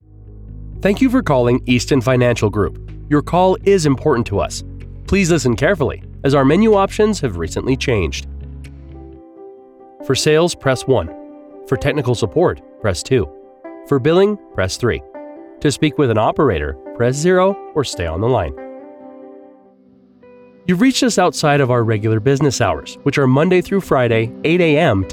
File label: IVR